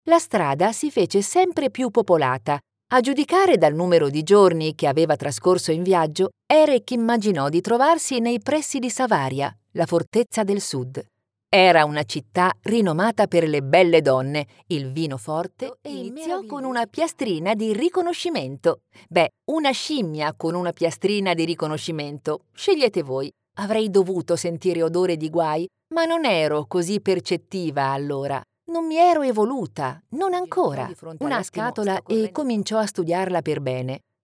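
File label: Italian, female, narration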